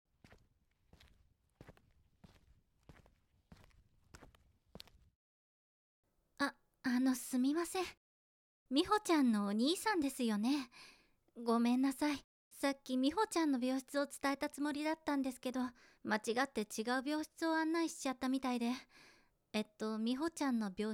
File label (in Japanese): 受付の女性, ASMR